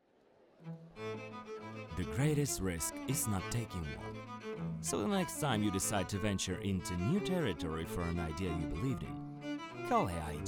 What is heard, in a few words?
Corporate English